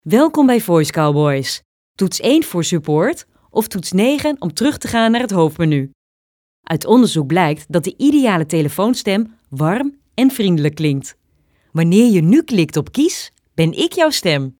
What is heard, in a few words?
voicemail